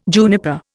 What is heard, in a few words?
"juniper", Indian